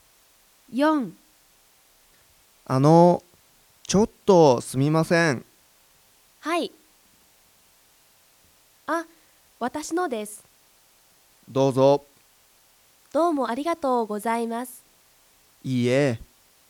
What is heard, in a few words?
Conversation